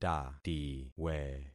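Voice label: "tatiwe", concatenated syllables; Affixing experiment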